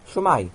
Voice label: "hello", welsh